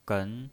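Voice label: "gen", Mandarin Chinese, second tone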